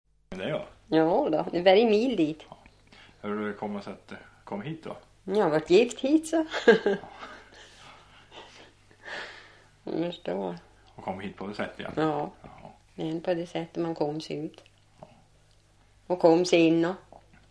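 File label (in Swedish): Bodsjö Jämtland, Svenska sagor, dialekter; Folkminnen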